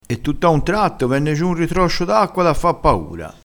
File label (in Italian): "ritroscio", crespinese